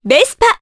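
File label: Vox, voices, Japanese; Juno; kingsraid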